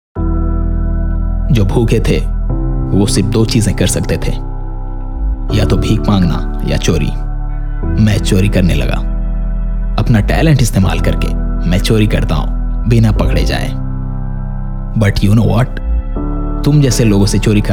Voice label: Movie Lead Dub Sample